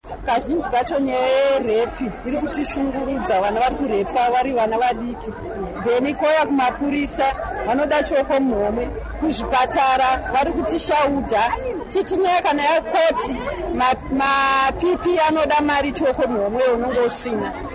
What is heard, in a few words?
march vox pop; code red